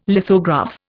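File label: "lithograph", Indian English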